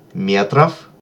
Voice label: Meters, Russian, male